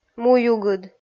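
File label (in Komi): "муюгыд", Komi, female; kpv audio dict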